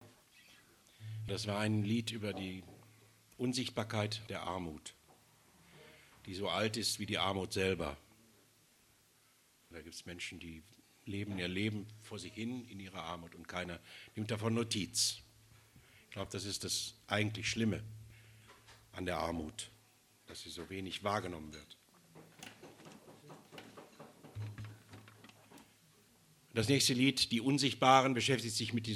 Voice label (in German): ansage; abgesang